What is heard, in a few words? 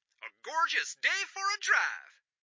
Radio Chatter "A gorgeous day for a drive!"